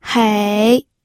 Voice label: "hei3", pinyin audio